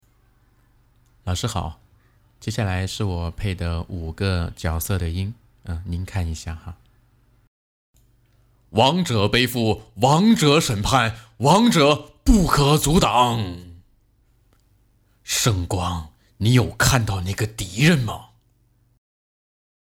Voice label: 游戏 试音

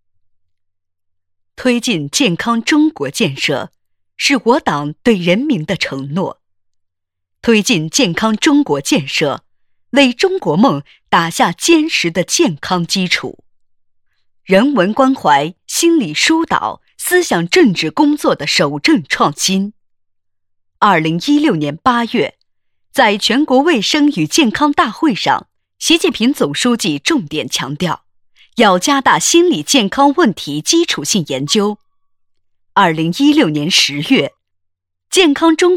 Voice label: 女 试音

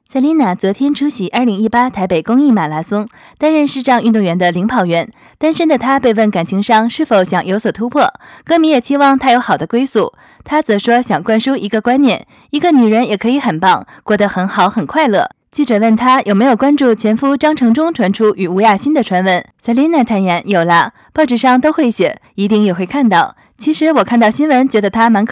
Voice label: 娱乐资讯播报

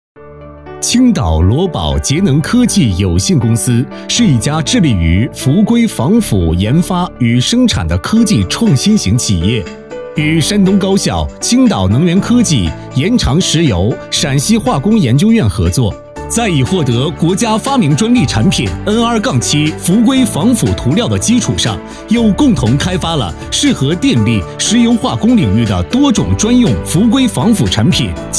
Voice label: 专题产品介绍; 青岛罗宝

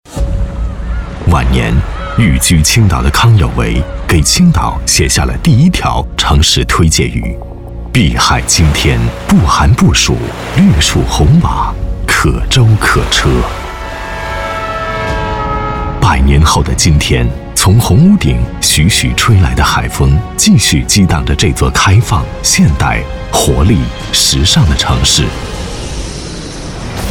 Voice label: 交通广播; 青岛交通广播; 挚爱不曾改变

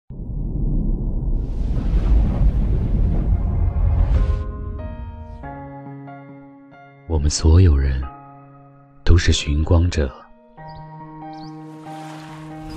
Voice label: 广告

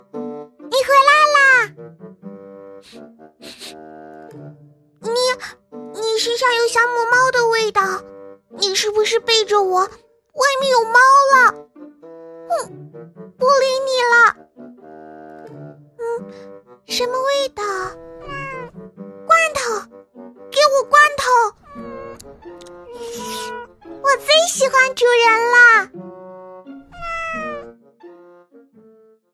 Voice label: 活力女童

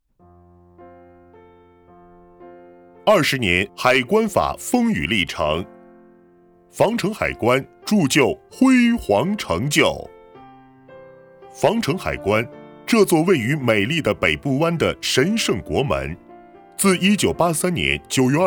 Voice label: 党建片海关解说

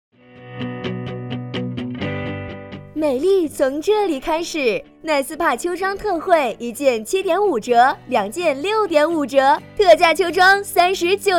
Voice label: 促销女装店

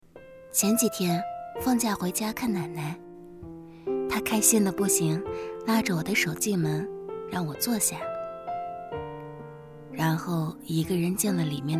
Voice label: 走心旁白女青年

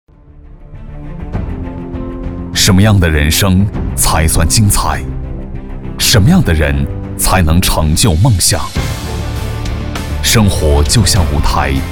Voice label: 奋进微电影励志

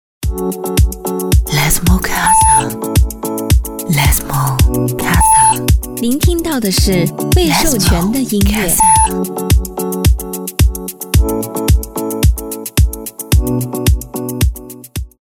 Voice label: 明媚英语广告

